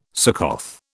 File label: "Succoth", British